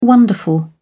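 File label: "wonderful", British English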